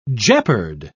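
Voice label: "jeopard", British English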